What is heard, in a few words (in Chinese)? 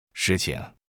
"事情", Mandarin